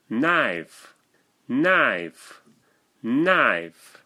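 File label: "Knife", English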